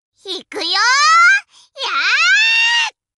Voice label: run away, voice commands Anime Loli; svencoop